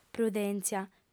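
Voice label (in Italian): "prudèntzia", lingua sarda, fem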